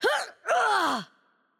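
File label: Spanish hurt heavy voice; bloom minigame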